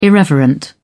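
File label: "irreverent", British English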